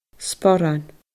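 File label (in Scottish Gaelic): "sporan", Scottish Gaelic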